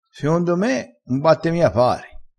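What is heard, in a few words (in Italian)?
"um batte", dialettale, crespinese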